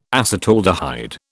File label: "acetaldehyde", British